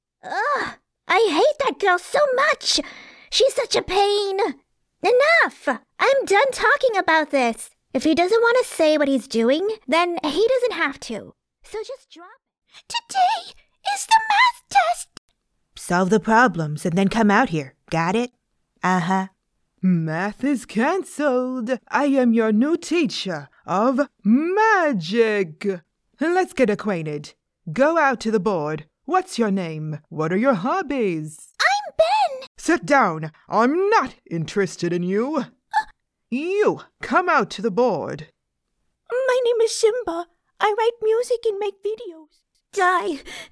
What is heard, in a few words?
Cartoon & Gaming VO